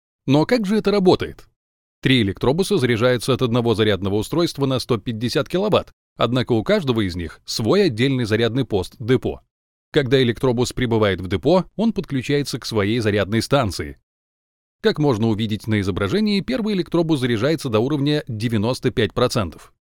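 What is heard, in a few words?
Belarusian, e-learning; GoLocalise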